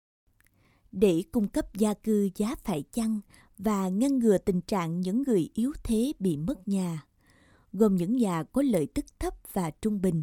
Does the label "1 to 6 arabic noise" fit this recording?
No